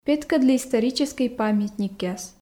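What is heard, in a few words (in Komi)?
петкӧдлы историческӧй памятникъяс, Komi, female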